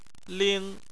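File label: "ling", Chinese, first tone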